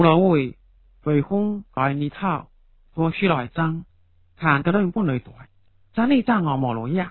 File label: Fuzhou